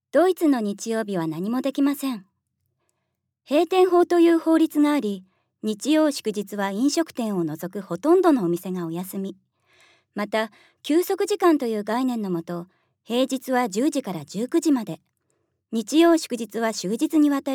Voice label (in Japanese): ナレーション